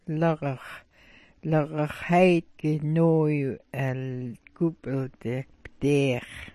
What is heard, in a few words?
"lagax", smalgyax